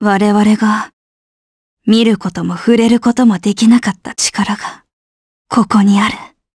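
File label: voice Dia, voices heroes, Japanese; Valance; kingsraid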